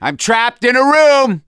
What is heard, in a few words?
call for rescue, survivor voice; gambler